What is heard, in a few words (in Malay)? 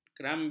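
"kerambit", Malay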